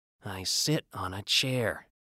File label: Read